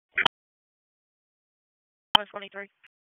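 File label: Raleigh Police Southeast District Dispatch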